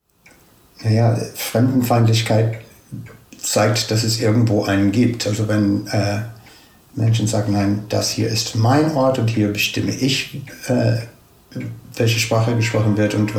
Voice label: us englisch

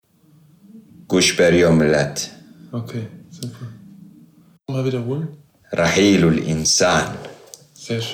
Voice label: arabisch syrien

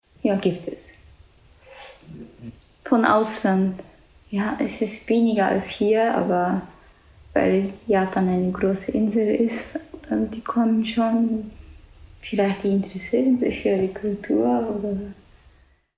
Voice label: japanisch

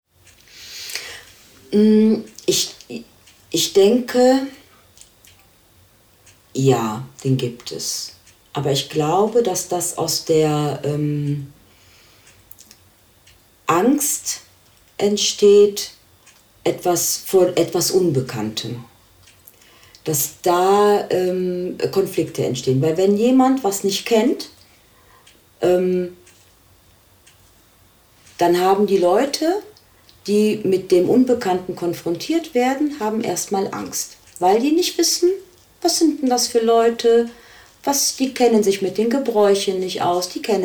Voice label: deutsch italien

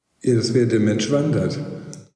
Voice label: deutsch

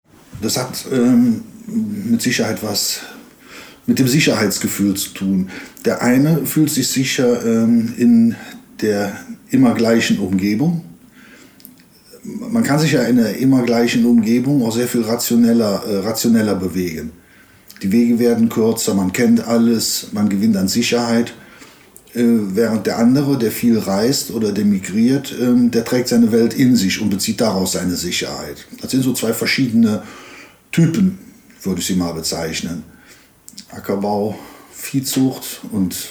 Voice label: koelsch

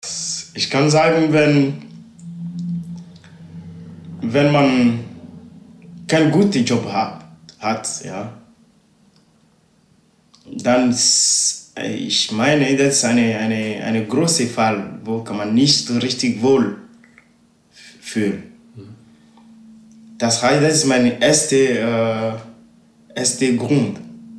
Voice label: ewe togo

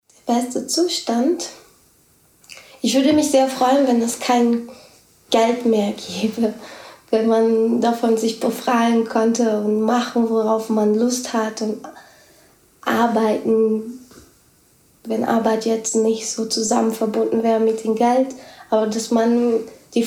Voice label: portogiesisch brasilien

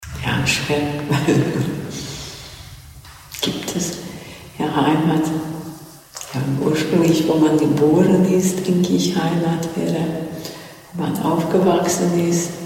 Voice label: ungarisch

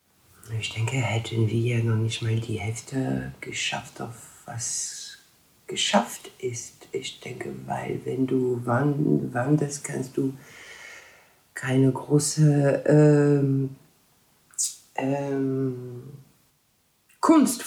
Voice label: franzoesisch

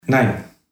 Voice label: spanisch costa rica

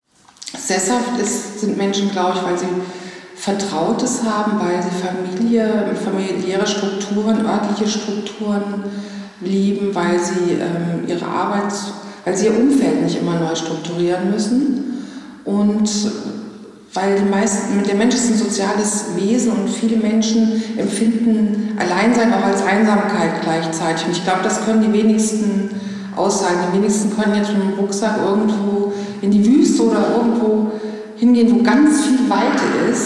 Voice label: hessisch

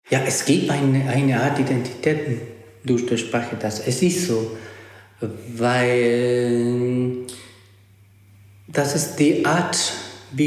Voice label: spanisch ecouador

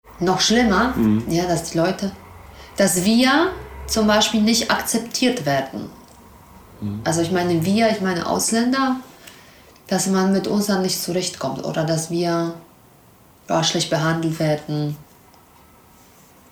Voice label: polnisch

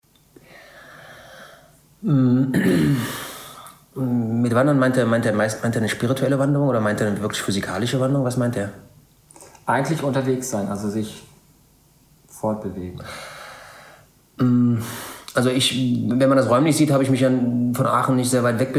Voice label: kroatisch

